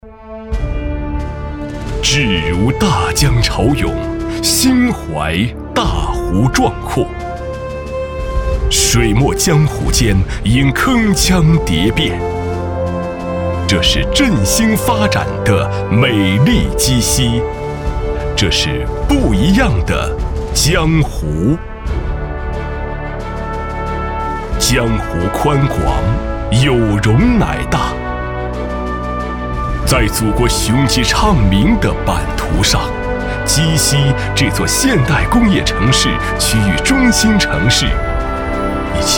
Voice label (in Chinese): 男 大气浑厚城市宣传片; 鸡西